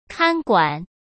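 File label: "看管", Mandarin Chinese; chinese-flashcards; hsk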